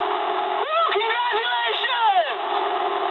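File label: English player killed enemy mood high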